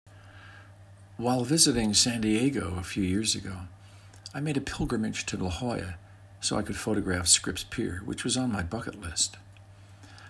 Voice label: narration; family reunion